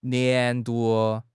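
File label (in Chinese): "年度", Chinese; HSK; HSK6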